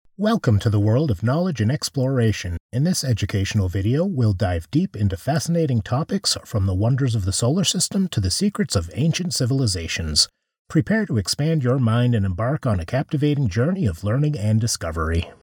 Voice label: EDUCATIONAL